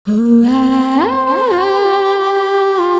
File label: voice FX, Drum+Bass, 160; eJay